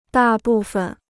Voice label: "大部分", Chinese

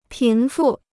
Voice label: "贫富", Chinese

